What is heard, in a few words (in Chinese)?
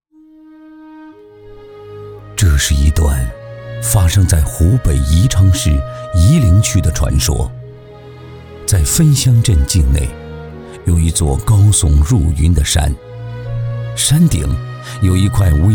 男 记录片 娓娓叙述; 这是一段发生在...的传说